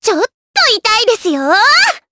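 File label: Vox Skill Japanese; Dosarta; kingsraid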